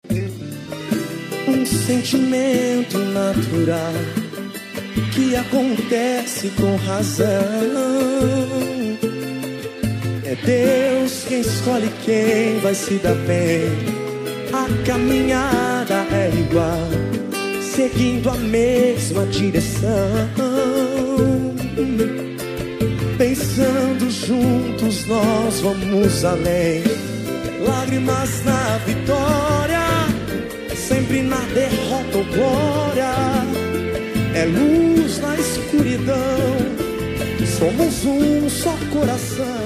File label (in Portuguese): aniver amiga fem